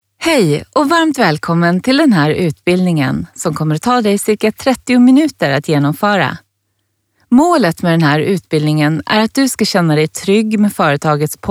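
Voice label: se elearning, Swedish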